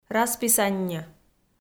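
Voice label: "расписанньӧ", Komi, female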